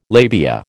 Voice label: "labia", American